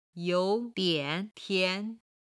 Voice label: "you3 dian3 tian2", Chinese